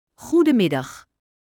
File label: Dutch Good afternoon; Greetings